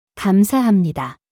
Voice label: Korean Thank you; Greetings